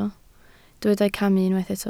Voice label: "camu", Welsh